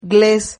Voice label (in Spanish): "gles", Silabas